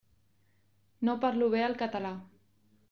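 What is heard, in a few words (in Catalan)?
no parlo catala, Catalan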